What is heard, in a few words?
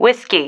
"whiskey", female